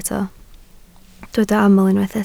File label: "aml", Welsh